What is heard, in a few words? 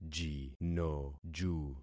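"jinoju", concatenated syllables; Affixing experiment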